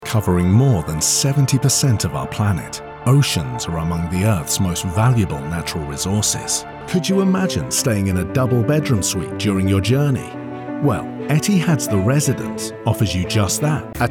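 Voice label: English, British, male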